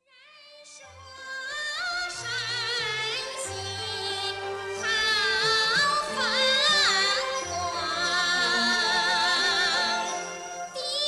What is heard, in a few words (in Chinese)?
boy, xuanchuan; Chinese; shanxi daqi